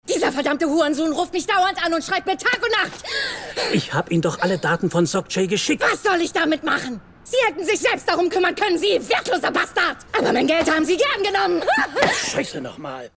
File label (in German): energisch hysterisch